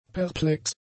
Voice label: "perplex", German; adjectives

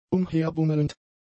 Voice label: "umherbummelnd", German; adjectives

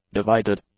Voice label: "divided", English; adjectives

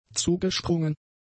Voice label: "zugesprungen", German; adjectives

